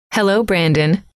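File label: "hello", female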